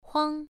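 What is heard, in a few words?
"huang", Mandarin Chinese, first tone, pronunciation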